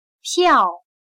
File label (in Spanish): "票", Chino